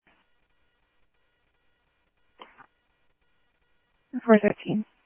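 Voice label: Mesa Police Department, scanner audio trunk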